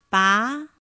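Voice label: "bá", Mandarin Chinese pinyin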